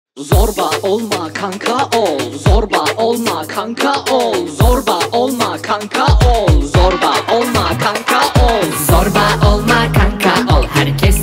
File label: rap; Cartoon Network Turkiye